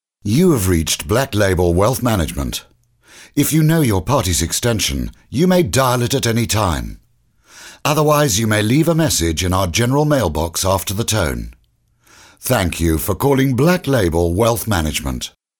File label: British Male